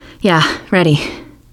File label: answer ready, teen girl; player; survivor; voice